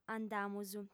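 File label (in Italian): "andamus", sarda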